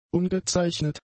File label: "ungezeichnet", German; adjectives